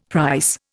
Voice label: "price", Indian; Dictionary

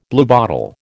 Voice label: "bluebottle", American

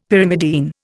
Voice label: "Pyrimidine", Indian; Dictionary

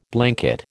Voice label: "blanket", American

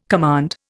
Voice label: "command", Indian

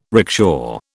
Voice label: "rickshaw", British

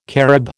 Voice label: "Carib", American

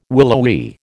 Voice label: "willowy", American

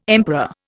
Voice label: "emperor", Indian

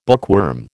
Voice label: "bookworm", American

